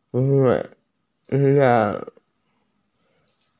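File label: "school", Mandarin; xue2xiao4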